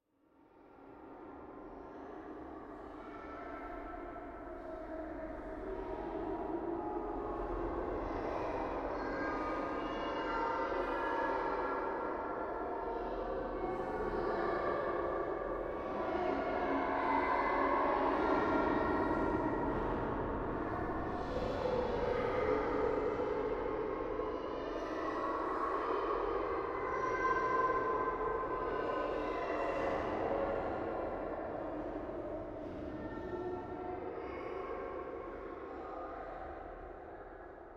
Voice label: Children Talking In Heavy Reverb Eerie Drone; Hollywood Edge; sound designer's toolkit v2

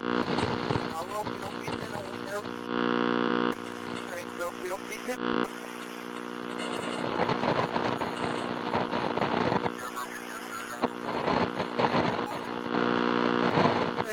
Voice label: police radio; DeadFright

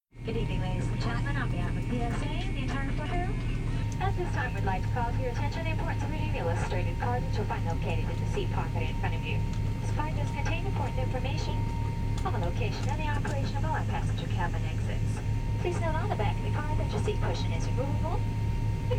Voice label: Plane Cabine staff security check; KMP Film Video Sound Effects

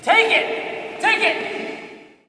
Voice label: Hockey Male "Take It"; Hockey Vocal Sounds

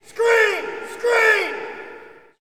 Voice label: Hockey Male "Screen"; Hockey Vocal Sounds

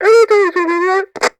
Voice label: Walkie Talkie Female Chatter; Communications; 1001 Sound Effects

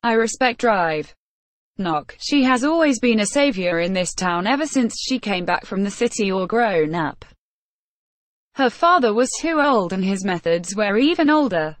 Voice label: woman; DeadFright

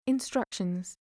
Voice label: "instructions", voice overs

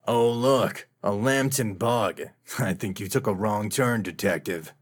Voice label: thug inital dialogue; Pitch Black - A Detective's Demise

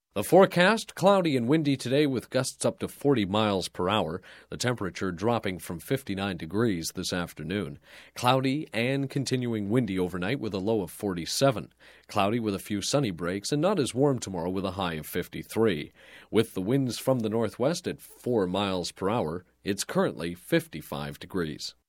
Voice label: Broadcast, Weather Generic Fall Forecast, Dry; Radio; Sound Ideas; Series 2000

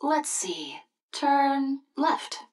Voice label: GPS lets see left; Blind Drive

